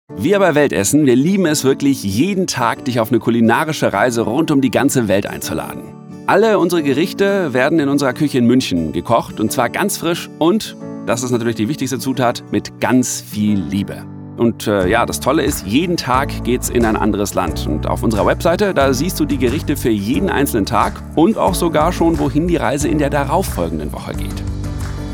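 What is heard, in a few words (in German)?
Imagefilm herzlich authentisch Musik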